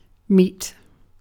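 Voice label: "meat", British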